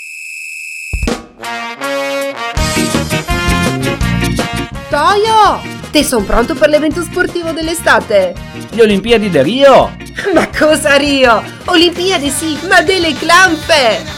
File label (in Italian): radio spot; olimpiade clanfe; radio fragola